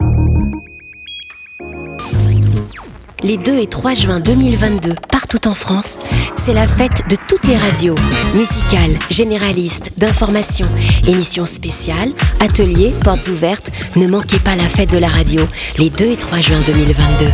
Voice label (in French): spot